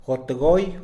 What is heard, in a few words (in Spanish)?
"apurarse", Mixe, hombre; Tiempo